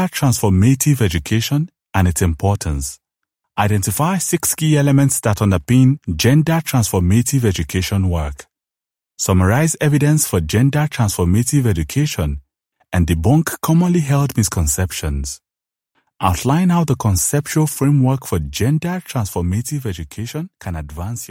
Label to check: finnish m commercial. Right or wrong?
Wrong